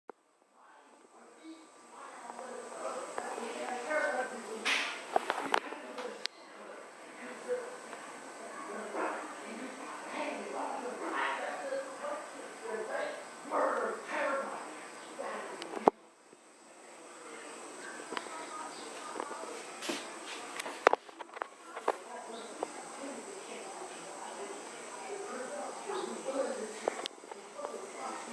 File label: Older brother violently shouting at TV